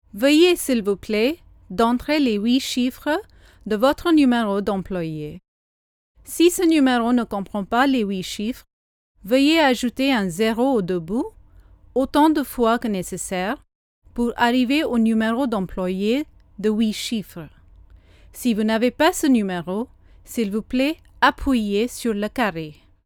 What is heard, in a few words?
French